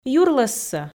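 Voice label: "юрлӧссӧ", Komi, female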